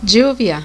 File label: "lluvia", spanish; lluvia (rain)